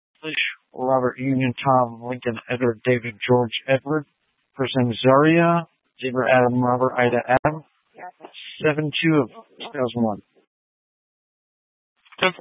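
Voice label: Tempe police department, scanner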